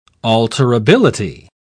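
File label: "alterability", British English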